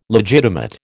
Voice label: "legitimate", American